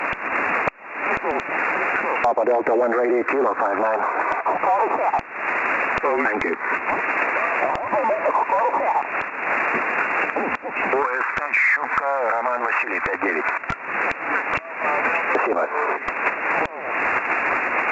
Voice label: Iran on 14MHz SSB